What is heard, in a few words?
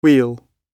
"wheel", English